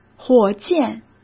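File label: "火箭", Mandarin Chinese; hsk; chinese-flashcards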